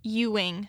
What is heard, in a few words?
"Ewing", Michigan pronunciation